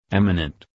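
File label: "eminent", English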